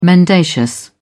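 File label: "mendacious", British English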